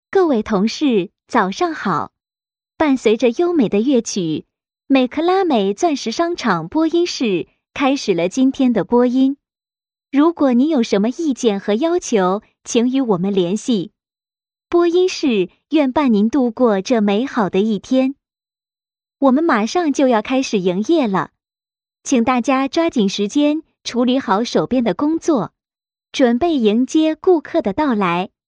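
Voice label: Chinese, female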